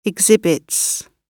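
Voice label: "exhibits", British English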